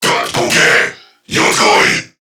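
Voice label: Attack Crewmen, English; Warframe; CorpusCrewmen; CrewmanA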